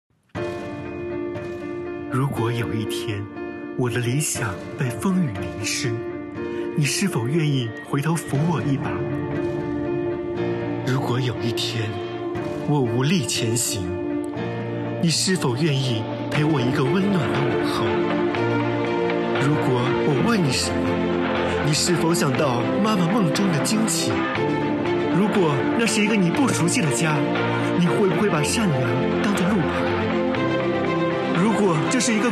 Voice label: 旁白; 良宫藏变形计旁白

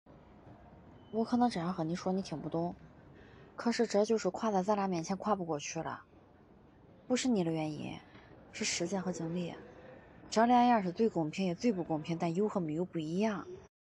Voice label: 方言; 三十而已方言

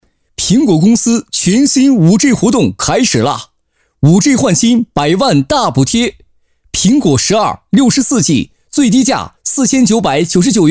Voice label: 卖场促销苹果手机专卖店

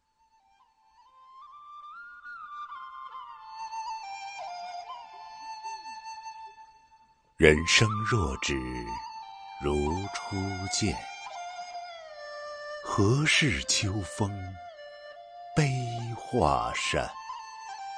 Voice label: 自然诵读

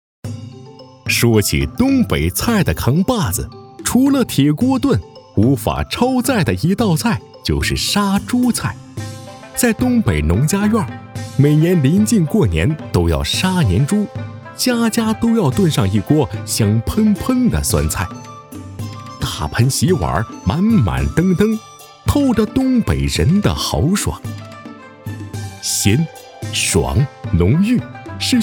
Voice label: 舌尖类 活力; 舌尖类【冬藏：品肉禽】活力

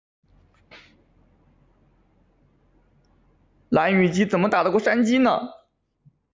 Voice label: 男子

